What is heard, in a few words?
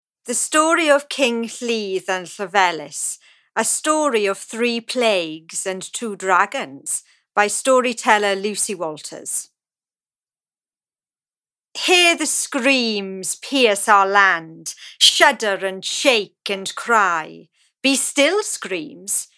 Narration; The Mabinogion